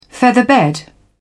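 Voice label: "featherbed", British English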